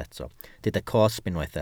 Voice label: "cosb", welsh

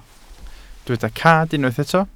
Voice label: "cad", welsh

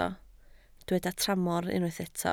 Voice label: "tramor", Welsh

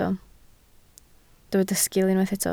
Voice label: "sgil", Welsh

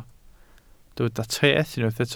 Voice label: "treth", Welsh

